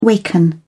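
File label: "waken", British English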